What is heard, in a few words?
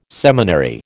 "seminary", American